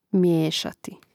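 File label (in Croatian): "mijesati", Croatian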